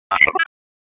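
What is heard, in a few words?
Police Spec Ops Tac, radio; Raleigh; Tac 4; p25; wake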